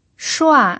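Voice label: "shua", Mandarin Chinese pinyin, fourth tone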